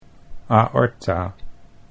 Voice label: "aorta", Dutch